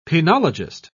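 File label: "penologist", English, British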